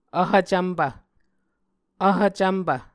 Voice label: "vhv cvmpv", Muscogee